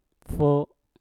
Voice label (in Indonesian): "fo" panjat, Mpur